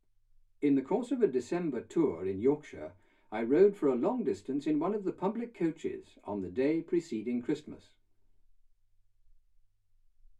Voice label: male speech english